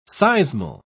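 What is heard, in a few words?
"seismal", British English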